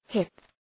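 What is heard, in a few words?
"hip", English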